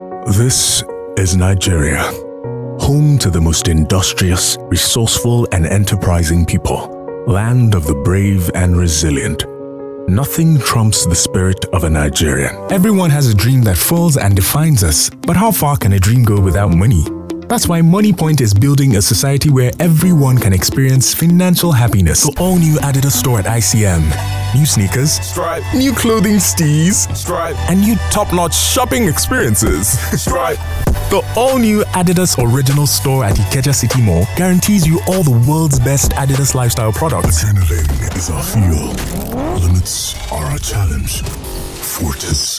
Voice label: English African, Male

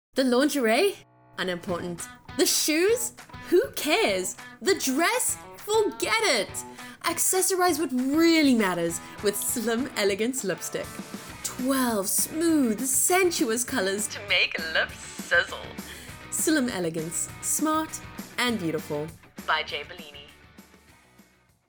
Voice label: English South African, Female

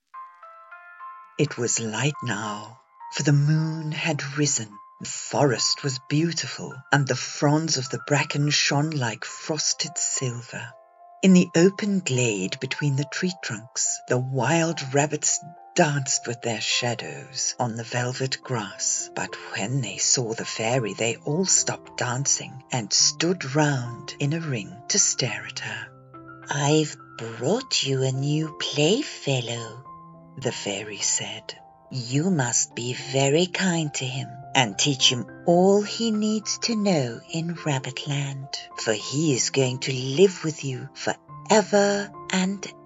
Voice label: English South African, Female

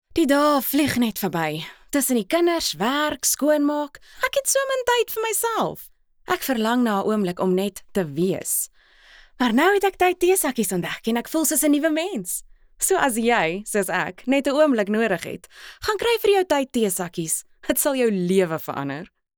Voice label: Afrikaans, Female

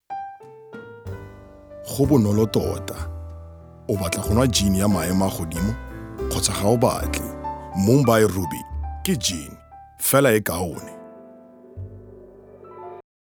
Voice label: Setswana Male

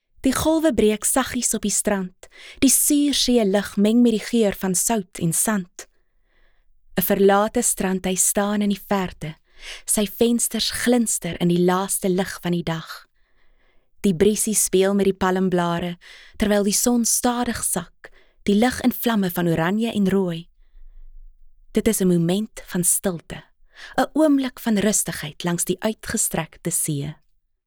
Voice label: Afrikaans, Female

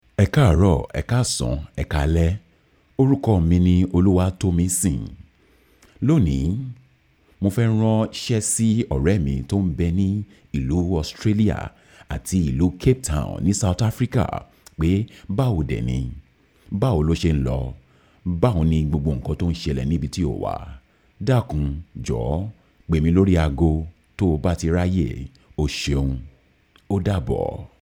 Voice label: Yoruba, Male

